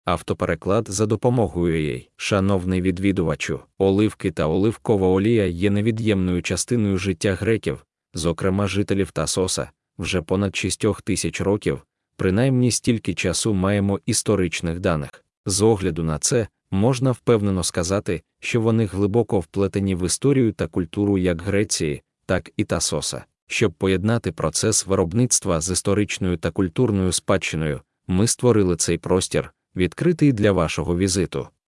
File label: ukrainian; eisagogi